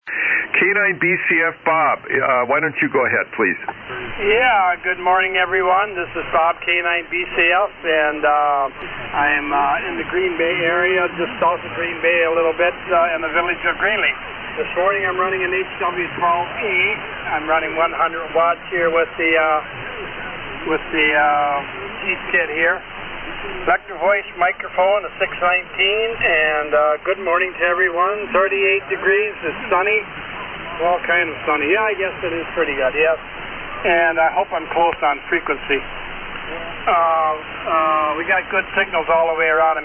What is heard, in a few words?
Heathkit HW-12 & EV-619 Mic; Greenleaf, Wi.